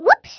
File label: "oops", American; Speech; International